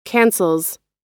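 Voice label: "cancels", American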